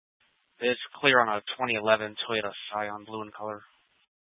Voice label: Mesa PD Dispatch; TOPAZ Rgnl Wireless Co-op (TRWC); 1 Fiesta Dispatch

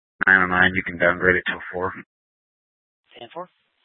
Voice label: Police Dispatch

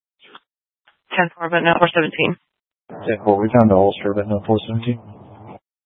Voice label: Sheriffs Office, scanner

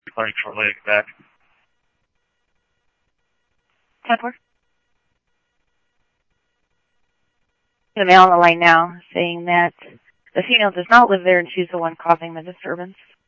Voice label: Scottsdale Police, scanner audio

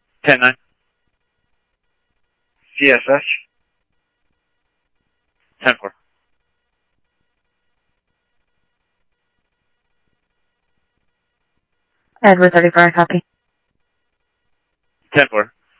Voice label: PD Police, scanner audio

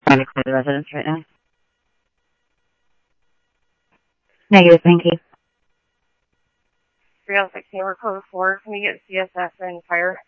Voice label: Police, scanner audio trunk; H-Scottsdale

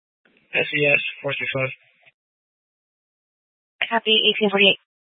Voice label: Police Dispatch; Phoenix Police; A04 400 South Mt Prec; Simulcast C- Chandler

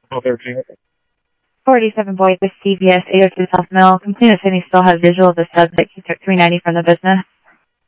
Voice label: Tempe Police, scanner audio

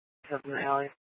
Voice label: PD Dispatch

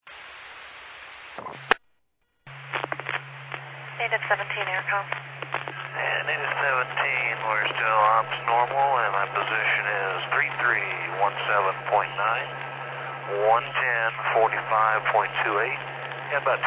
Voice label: Medevac, scanner; NativeAirAmb

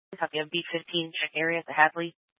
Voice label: Scottsdale Police, Simulcast

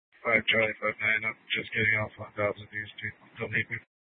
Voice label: Mesa PD Fiesta Dispatch

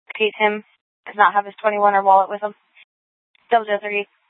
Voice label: Dispatch; Scottsdale

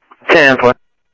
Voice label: scanner audio trunk

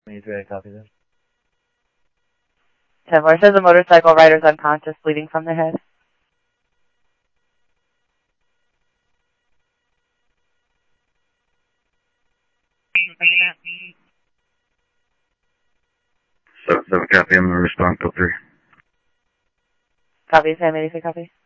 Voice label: Mesa Simulcast, MESA PD, scanner audio

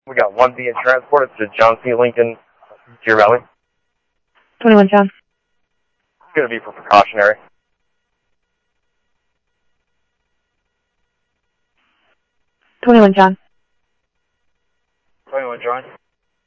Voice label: Phoenix PD, scanner audio

